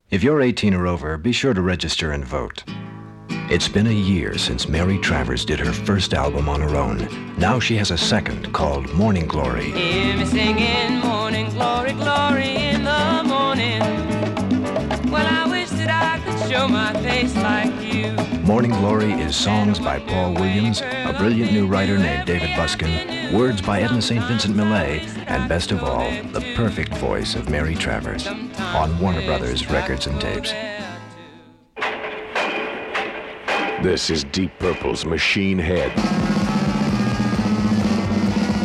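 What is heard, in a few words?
Radio Commercials; Warner Brothers Records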